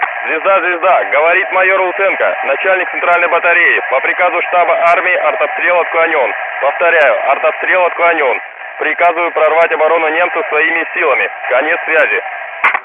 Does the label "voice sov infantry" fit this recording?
Yes